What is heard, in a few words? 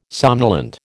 "somnolent", American